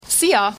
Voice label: hungarian siya hello